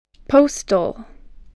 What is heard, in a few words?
"postal", English